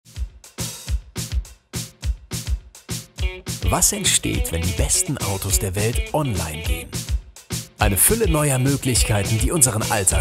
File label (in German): Werbung; Mercedes